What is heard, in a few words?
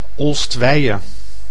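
"Olst-Wijhe", Dutch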